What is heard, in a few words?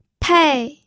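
"pei4", Mandarin Chinese; pinyin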